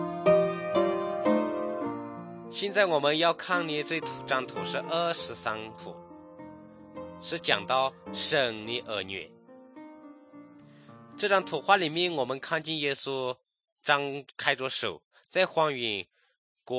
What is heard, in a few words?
good news shan, drama